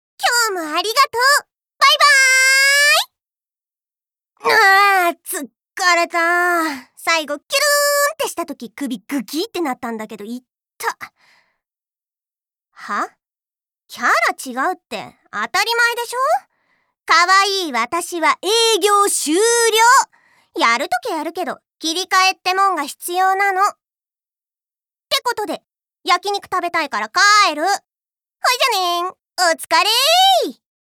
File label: girl; 女の子